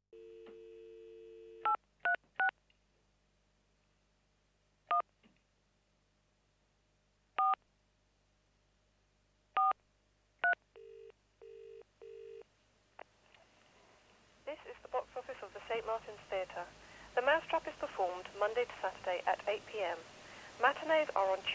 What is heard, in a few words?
phone call; Fremdsprachen